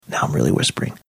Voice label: whispering now im really whispering